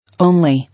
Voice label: "Only" English